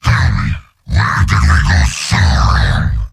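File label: jeers, robot; heavy; mvm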